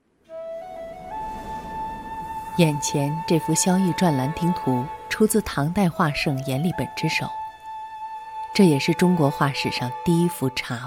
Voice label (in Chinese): 纪录片片段文史类